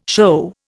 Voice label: "shew", Indian